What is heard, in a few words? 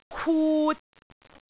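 "kut3", Cantonese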